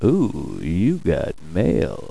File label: sexy male